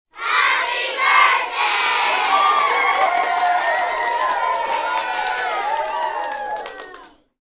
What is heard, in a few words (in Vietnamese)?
am thanh nhieu nguoi noi happy birthday